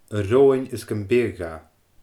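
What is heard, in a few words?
"a roghainn is gum b eadh dha", Scottish Gaelic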